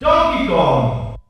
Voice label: German Announcer; Donkey Kong; SSB